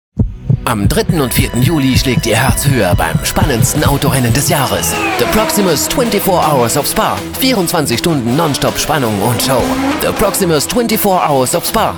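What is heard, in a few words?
DE M commercial, German, male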